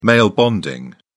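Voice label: "male bonding", British English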